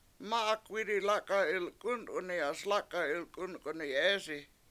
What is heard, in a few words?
This Stick Is Bent That One Is Not Ben, Washo